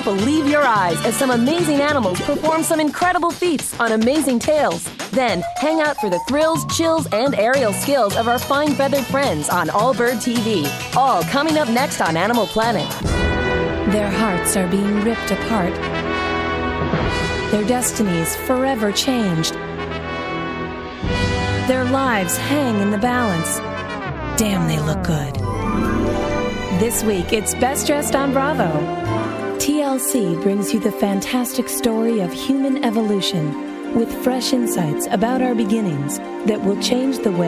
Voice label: women promos; Raspy, conversational, sexy, professional, and authoritative.

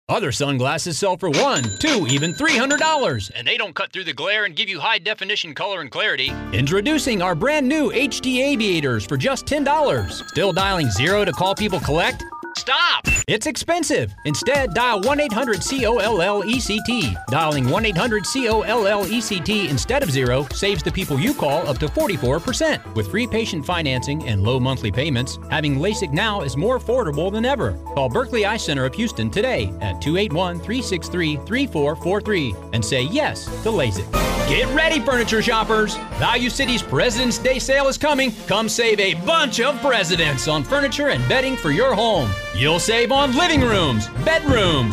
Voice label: men direct response; Just a typical everyday guy next door. Husband. Dad. Friendly neighbor.; Dealer; Training

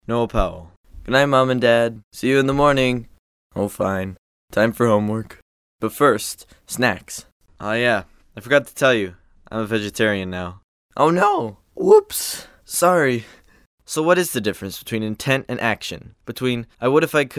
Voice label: kids commercial; Authentic, laid-back teen with a conversational read.

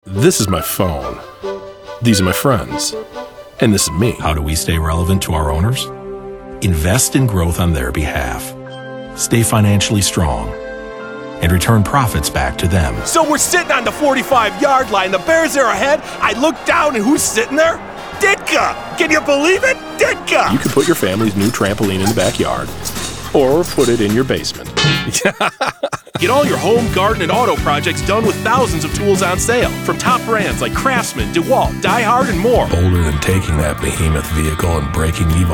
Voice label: men commercial

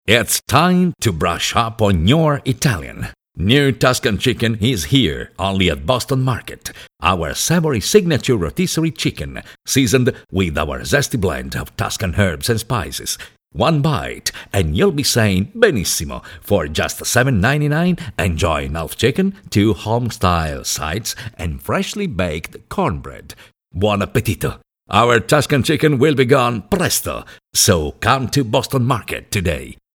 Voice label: italian accented english; Classic and traditional Italian announcer.

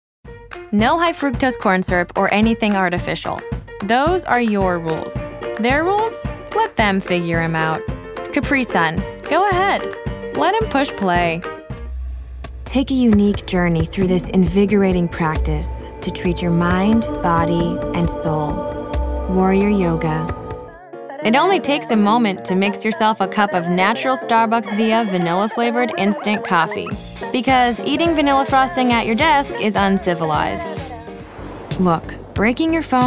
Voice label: women commercial; Bright, contemporary, fresh, sassy, casual, and confident.

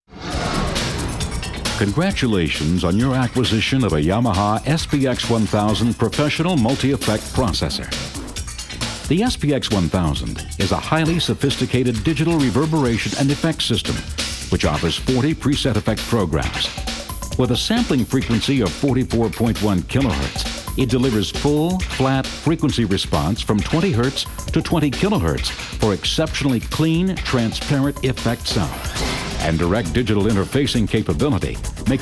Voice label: men narration; Smooth, rich, deep ... with a hint of an edge.

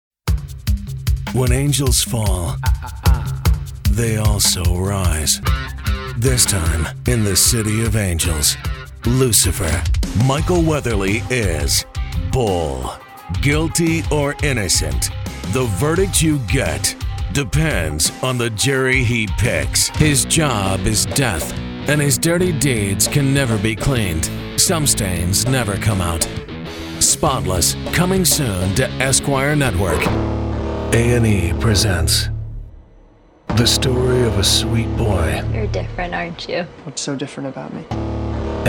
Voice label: men promos; Distinctive and versatile, smooth to edgy.

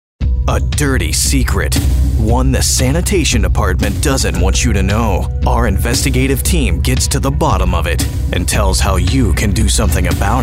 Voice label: men tv affiliate; Young. Real. Conversational. Guy next door. Down to earth. Non-announcery. Not 'selly'.

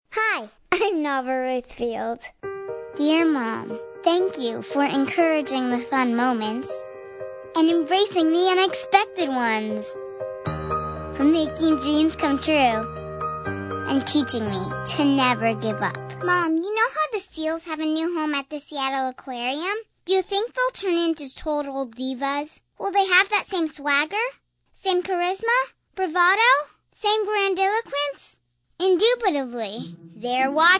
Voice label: kids commercial; Sweet and Sassy!

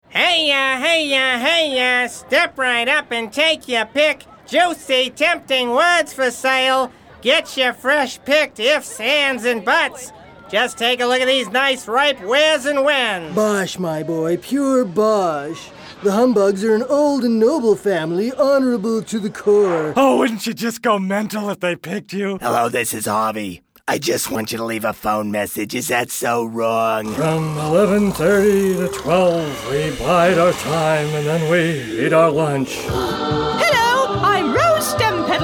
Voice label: men animation; Characters, authoritative/warm, deep baritone.

